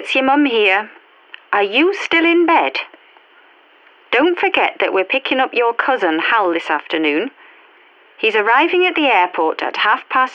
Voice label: Voicemail; HS Hessen English 2021